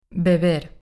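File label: "beber" Spanish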